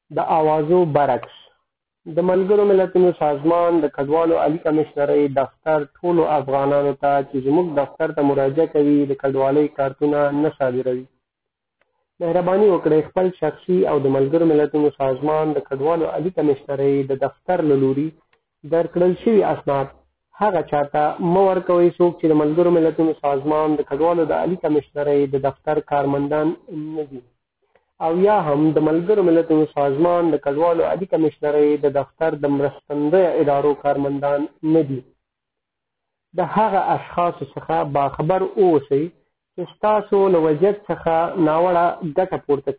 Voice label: Pashto